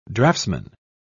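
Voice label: "draftsmen", British English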